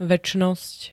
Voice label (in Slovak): "večnosť", slovenské